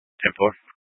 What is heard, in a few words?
Dispatch, scanner; Mesa PD Dpt; 4 Sprstn Dispatch